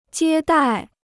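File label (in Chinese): "接待" Chinese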